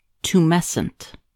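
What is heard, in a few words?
"tumescent", American English; pronunciations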